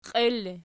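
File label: "qiel" ergative, Archi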